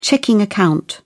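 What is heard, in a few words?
"checking account", British English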